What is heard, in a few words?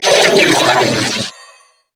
Death Grineer Female; New War; Narmer